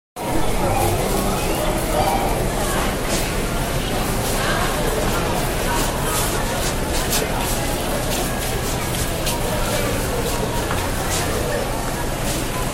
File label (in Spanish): Personas hablando; Personas hablando V.2